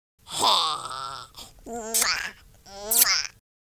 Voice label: happy; Capone